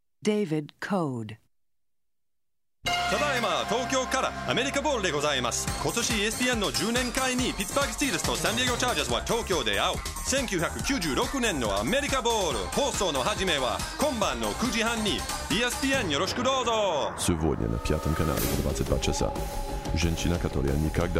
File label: languages men japan russ spanish french italian